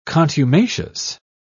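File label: "contumacious", British English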